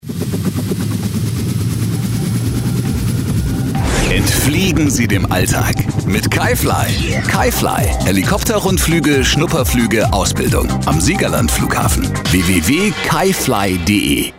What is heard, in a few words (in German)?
radiospot; kayfly